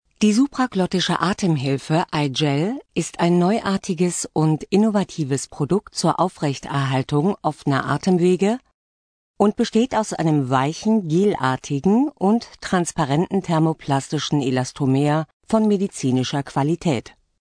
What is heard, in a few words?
female german voice over narrative